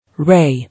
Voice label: "Ray", English